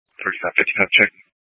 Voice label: Police Dispatch